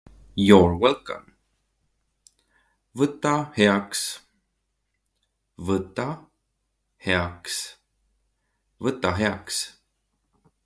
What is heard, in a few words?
You re welcome, estonian; the talking estonian phrasebook